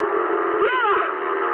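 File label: damaged pilot mood medium, speech English; War Thunder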